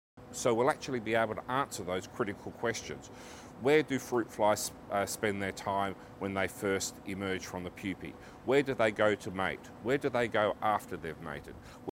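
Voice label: radio interview; Insects mating secrets key to protecting Aussie farms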